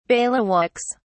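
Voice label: "bailiwicks", British English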